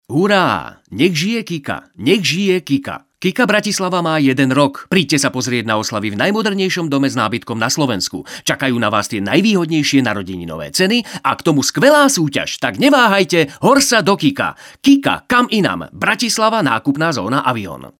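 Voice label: Male Slovak commercial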